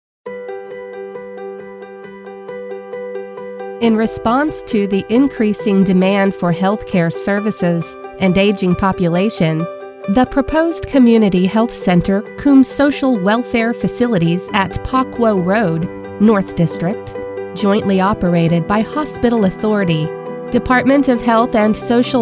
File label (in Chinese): 美式 专题